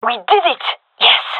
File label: round won, fem; vo